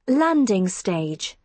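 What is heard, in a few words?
"landing stage", British English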